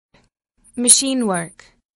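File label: "machinework", English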